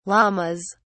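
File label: "lammas", British English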